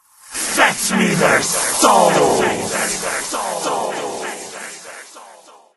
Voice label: announcer boss round